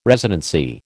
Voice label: "residency", American

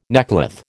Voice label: "neckcloth", American

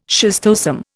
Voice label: "schistosome", Indian

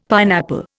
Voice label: "pineapple", Indian; Dictionary

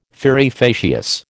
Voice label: "fieri facias", American

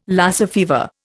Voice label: "Lassa fever", Indian

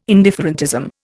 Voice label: "indifferentism", Indian

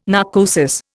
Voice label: "narcosis", Indian

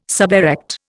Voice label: "suberect", Indian

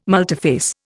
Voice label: "multiphase", Indian

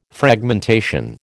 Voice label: "Fragmentation", American

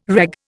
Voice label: "reg", Indian

